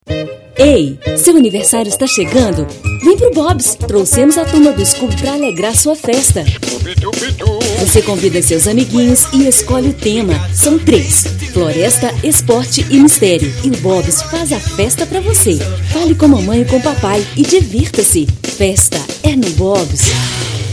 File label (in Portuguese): radio divertido; Bobs